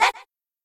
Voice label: buncha books, girls; cheerReaders; LetsGoRead